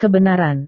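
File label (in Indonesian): "kebenaran", Indonesian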